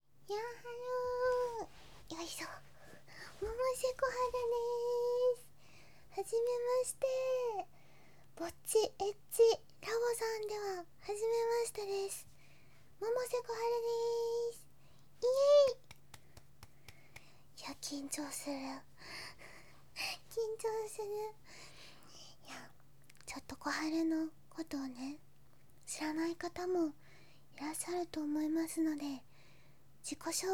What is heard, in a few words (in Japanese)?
自己紹介、おもちゃの紹介, ASMR